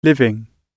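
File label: "living", British English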